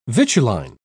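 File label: "vituline", British English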